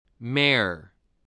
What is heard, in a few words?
"mare", spelling voice